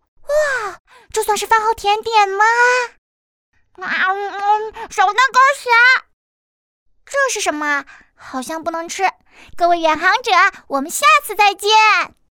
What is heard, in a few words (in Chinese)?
少女 古灵精怪 猫咪形象